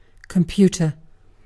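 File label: "computer", British English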